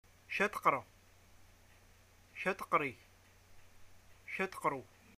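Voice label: what do u study, Algerian arabic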